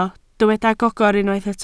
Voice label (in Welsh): "gogr", Welsh